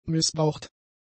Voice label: "missbraucht", German; adjectives

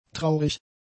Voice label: "traurig", German; adjectives

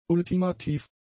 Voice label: "ultimativ", German; adjectives

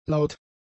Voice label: "laut", German; adjectives

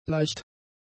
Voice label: "leicht", German; adjectives

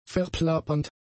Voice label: "verplappernd", german; adjectives

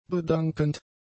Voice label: "bedankend", German; adjectives

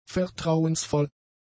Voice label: "vertrauensvoll", German; adjectives

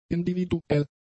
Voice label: "individuell", German; adjectives

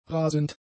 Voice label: "rasend", German; adjectives

